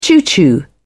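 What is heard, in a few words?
"choo-choo", British English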